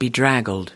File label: "bedraggled", us